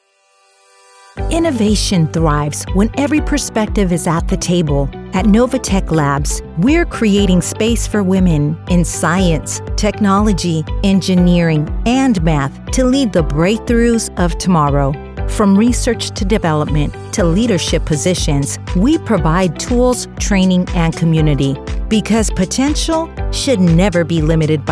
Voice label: Corporate Culture, Corporate Culture Narration, Conversational, Friendly, relatable Female Voice